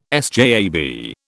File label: "SJAB", British